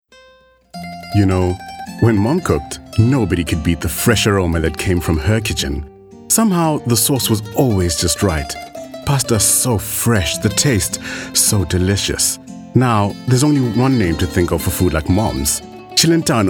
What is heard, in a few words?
Medium Sell